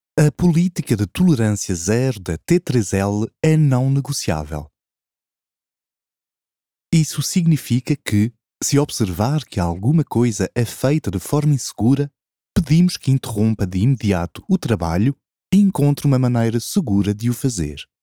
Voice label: Portuguese Generic VO Sample